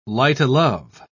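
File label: "light-o'-love", English, British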